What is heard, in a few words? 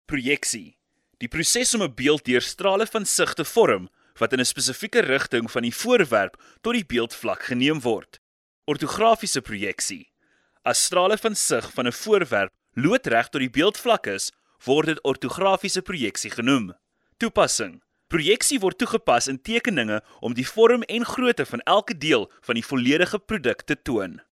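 Afrikaans, male, voice over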